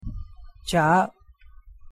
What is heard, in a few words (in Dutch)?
"ਚਾਹ", Punjabi; thee-in-andere-talen